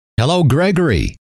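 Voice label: "hello", male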